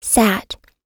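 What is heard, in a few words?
"sat", American English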